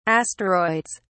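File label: "asteroids", British English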